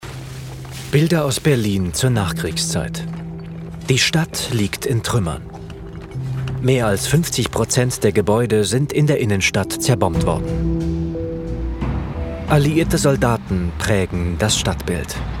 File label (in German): Doku OFF Geschichte Royal Scots kommentierend